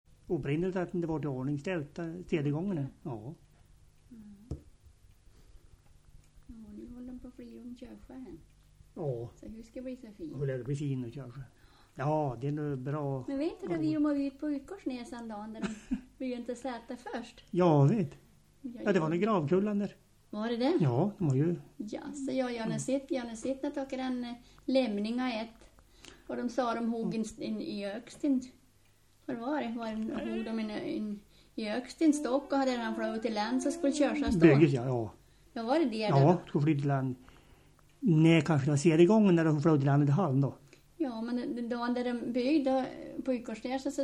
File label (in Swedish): Hallen, dialekter; Folkminnen; Svenska sagor